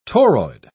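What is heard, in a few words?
"toroid", English, British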